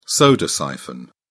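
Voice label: "soda siphon", British English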